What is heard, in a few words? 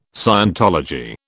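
"scientology", British English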